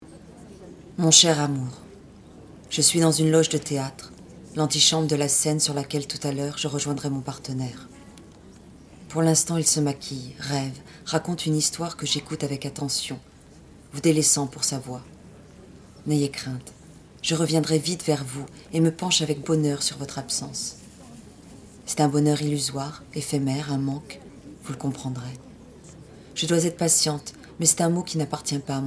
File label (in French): extrait théâtral